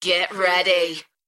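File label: UK female, DJ vocals; Get ready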